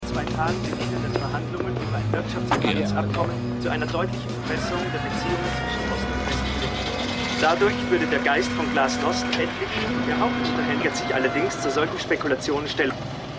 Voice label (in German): Radionachrichtensprecher; In Geheimer Mission